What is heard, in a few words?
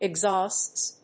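"exhausts", English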